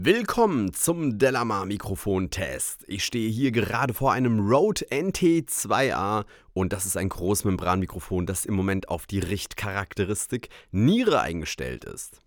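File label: speech male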